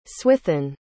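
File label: "swithun", English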